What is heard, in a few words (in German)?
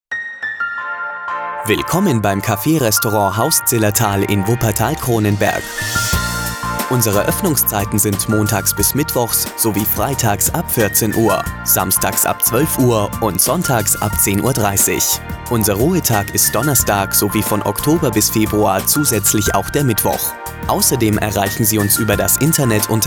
ansage; zillertal